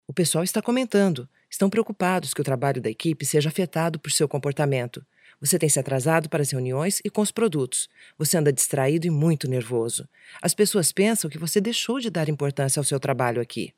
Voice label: brazilian portuguese female dubbing, Deep Female Brazilian Portuguese Voice